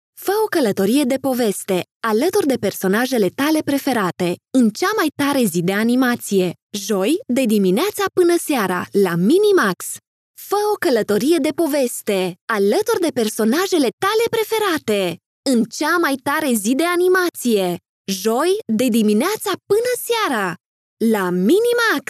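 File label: Romanian, female, Trailer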